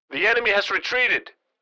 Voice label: Military radio voice; the enemy has retreated